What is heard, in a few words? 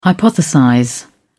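"hypothesize", British English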